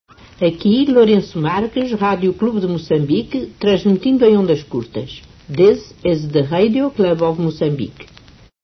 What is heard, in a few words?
Stn ID Short Waves; early 60s